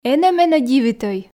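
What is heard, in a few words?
Komi, female; энӧ менӧ дивитӧй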